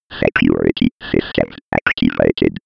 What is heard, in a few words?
old computer voice